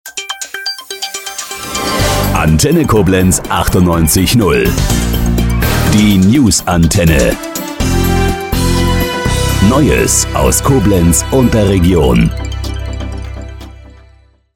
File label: newsregional